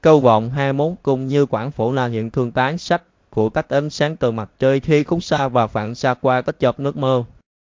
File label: speaker, vi VN; piper voices; vivos